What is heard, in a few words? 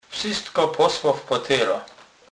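"potera", Polish, Kurpie dialect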